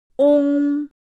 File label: "ong", pinyin; PinyinChart